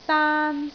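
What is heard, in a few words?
"daan1", Cantonese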